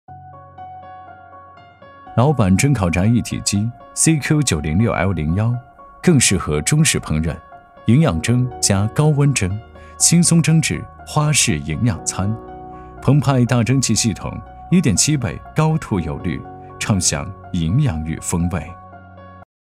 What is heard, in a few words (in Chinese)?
男 温情 蒸烤炸一体机; 老板蒸烤炸一体机